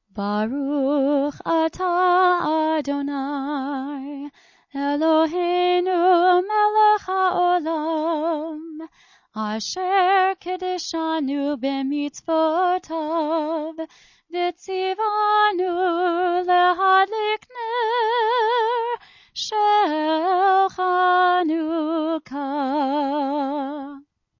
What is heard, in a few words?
chanukkah candle blessing